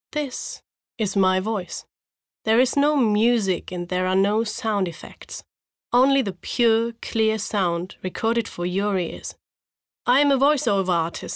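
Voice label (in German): image englisch, sprecher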